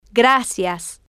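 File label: "gracias", Spanish, female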